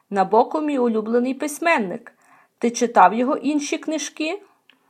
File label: dialogue, Ukrainian